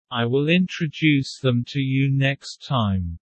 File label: low; Animal friends